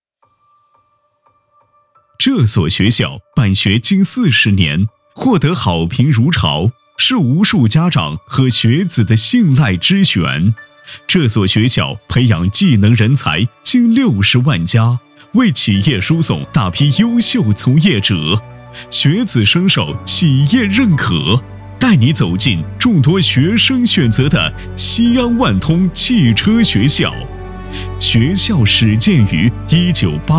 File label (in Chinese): 男 学校宣传片 稳重大气; 成熟浑厚; 大气浑厚; 西安万通汽车学校